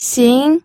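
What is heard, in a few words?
"xing2", Mandarin Chinese pinyin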